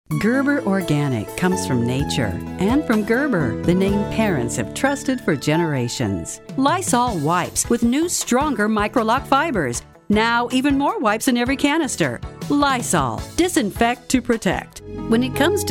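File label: commercial, female voice talent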